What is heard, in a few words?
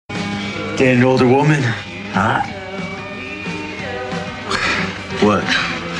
Older Woman